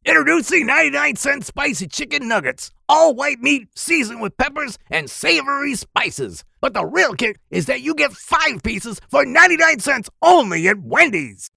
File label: RADIO; WENDY S